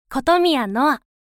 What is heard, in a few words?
free talk